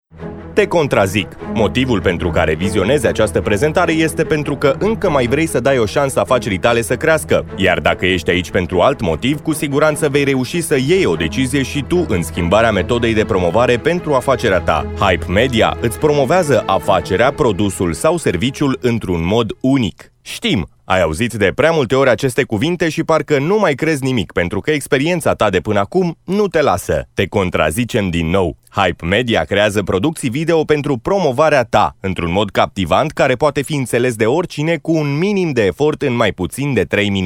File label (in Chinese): 罗马尼亚语男 外语 小语种 激情